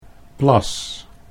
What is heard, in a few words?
"plas", Dutch